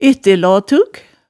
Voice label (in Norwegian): "ettelaatug", hemsedalsmaalet